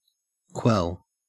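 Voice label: "quell", Australian English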